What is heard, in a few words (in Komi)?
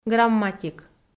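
"грамматик", Komi, female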